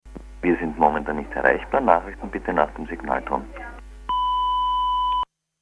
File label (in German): Anrufbeantworter